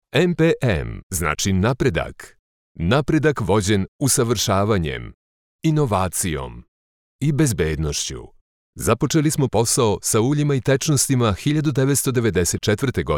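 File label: Serbian, male, corporate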